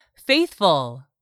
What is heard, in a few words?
"faithful", English; 3000 words